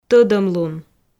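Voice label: "тӧдӧмлун", Komi, female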